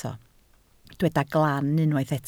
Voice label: "glan", Welsh